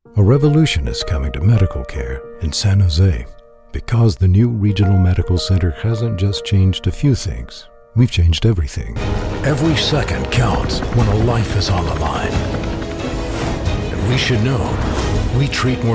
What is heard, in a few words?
American English Voiceover